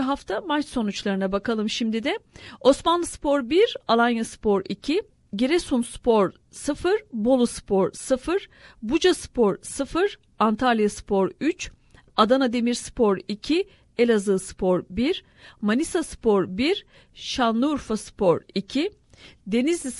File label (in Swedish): Turkiska